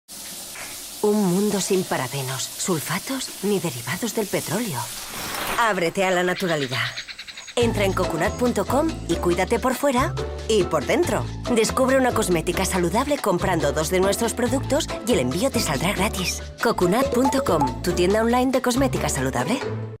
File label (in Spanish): Spot TV; Cocunat Tu tienda de Cosmética Saludable